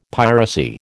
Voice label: "piracy", American